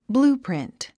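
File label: "blueprint", English word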